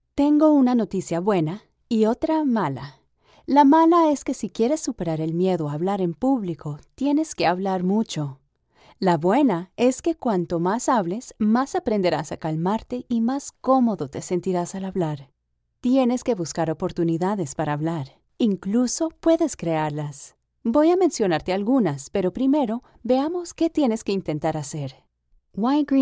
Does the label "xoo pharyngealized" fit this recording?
No